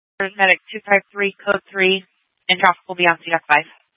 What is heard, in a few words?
Fire Departments Mesa Simulcast; TOPAZ